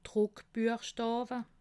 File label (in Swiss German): "druckbuechstawe", alsace